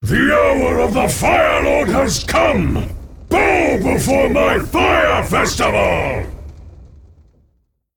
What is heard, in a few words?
Male Elemental FIRE FESTIVAL